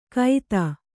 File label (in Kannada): "ಕೈತ", Kannada